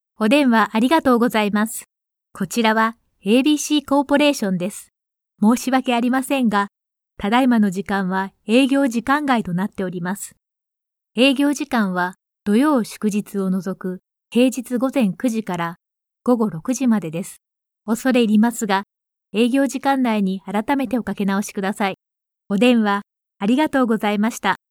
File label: IVR System Interactive Voice Response